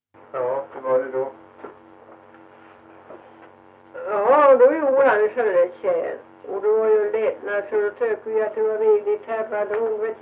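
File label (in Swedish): Ventlinge Öland, Svenska sagor, dialekter; Folkminnen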